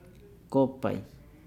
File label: "hat", Nukuoro